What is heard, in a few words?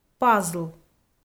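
"пазл", Komi, female; audio dict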